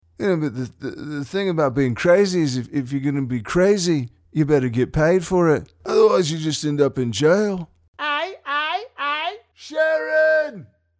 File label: impression; Ozzy Osbourne